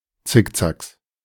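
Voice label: "Zickzacks", German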